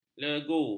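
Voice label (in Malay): "legu", Malay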